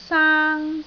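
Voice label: "saang1", Cantonese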